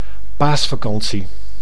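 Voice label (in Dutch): "paasvakantie", Dutch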